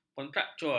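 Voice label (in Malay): "kontraktual", Malay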